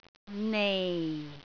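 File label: "nei4", Cantonese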